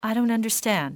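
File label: dont understand, english female; XBOX; civ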